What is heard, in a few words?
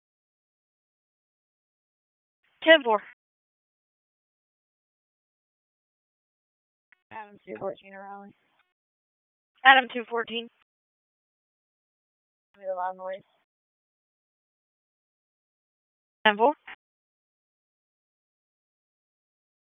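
Raleigh Police Northeast District Dispatch; wake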